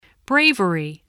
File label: "bravery", spelling